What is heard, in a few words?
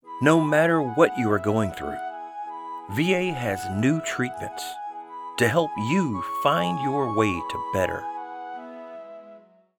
Comforting Conversational